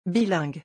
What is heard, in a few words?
"bilingue", French